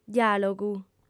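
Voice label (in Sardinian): "diàlogu", female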